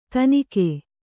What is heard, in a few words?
"ಥಣ್ಣಗೆ", kannada